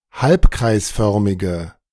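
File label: German "halbkreisförmige"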